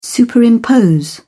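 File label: "superimpose", English, British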